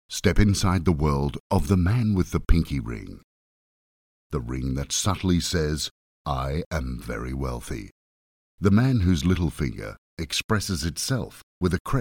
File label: Alcohol Commercials